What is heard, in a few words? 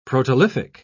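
"protolithic", British English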